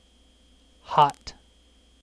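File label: "hot", English; kdeedu; klettres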